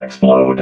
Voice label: "explode", robot

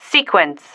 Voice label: "sequence", female

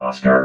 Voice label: "oscar", robot

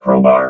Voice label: "crowbar", robot vox

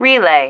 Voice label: "relay", female

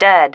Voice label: "dead", female

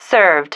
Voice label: "served", female

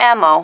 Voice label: "ammo", female

